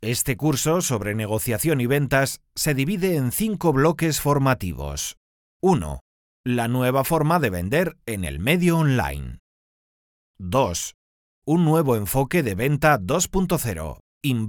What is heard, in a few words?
e-learning